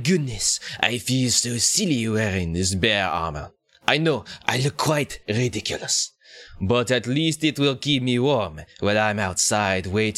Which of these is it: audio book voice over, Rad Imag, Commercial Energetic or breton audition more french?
breton audition more french